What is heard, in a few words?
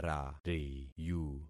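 "rariyu", concatenated syllables; Affixing experiment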